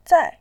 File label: "zai4", voice